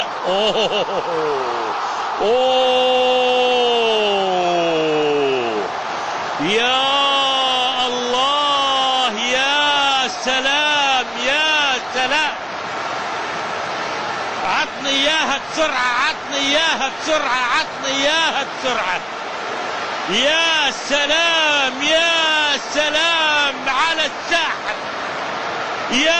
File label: arab goal